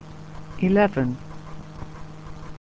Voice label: "Eleven", numbers